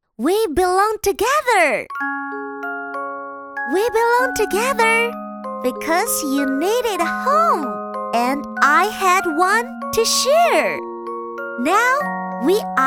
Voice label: 英文绘本童声

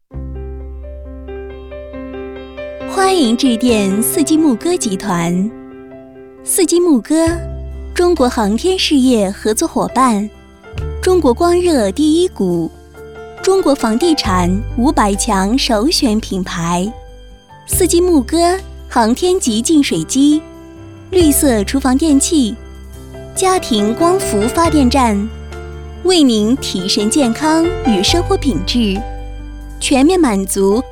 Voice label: 广告 彩铃 温柔

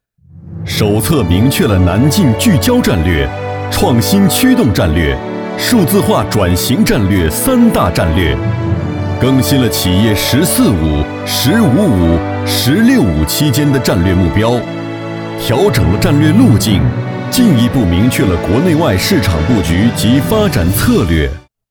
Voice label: 中文专题片; 中文专题片 中建四局; 浑厚

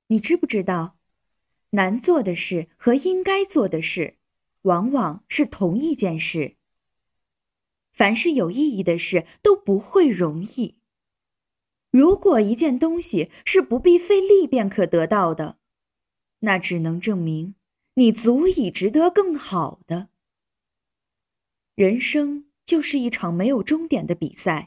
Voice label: 励志激

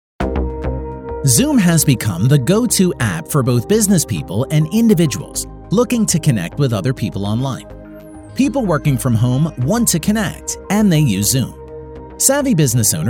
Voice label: 男美式英文解说软件

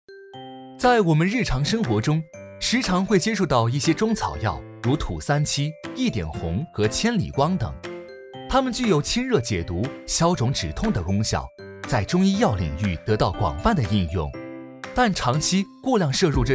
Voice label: 动画年轻活力健康; MG动画-年轻活力-《健康》

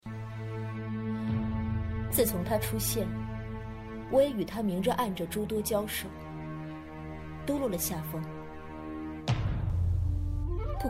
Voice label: 女性角色

